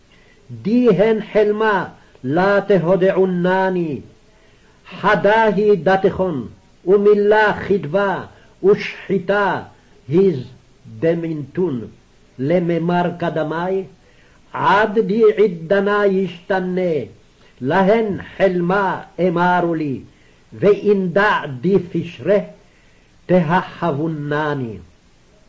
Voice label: Daniel Reading Practice, Aramaic